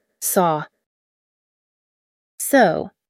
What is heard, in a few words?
"saw", "so"